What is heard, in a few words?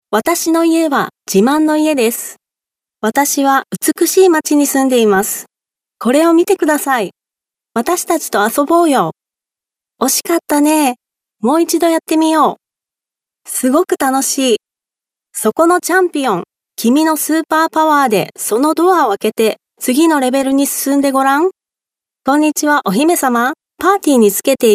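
Japanese female children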